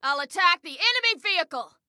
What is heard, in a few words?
Self Attack Vehicle, Female